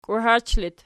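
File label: "kwahach", locative, Archi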